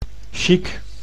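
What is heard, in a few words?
"chic", Dutch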